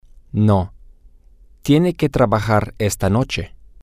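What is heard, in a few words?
no he has to work tonight, spanish, male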